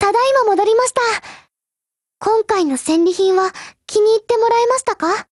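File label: OPERATION OVER, Japanese, Voice; GF Alarm; M1895MG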